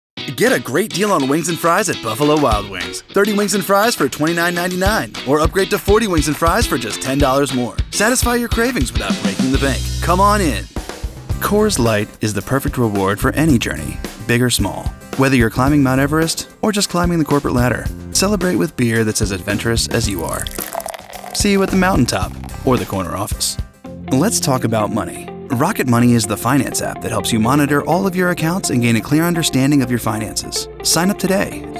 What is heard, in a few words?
Commercial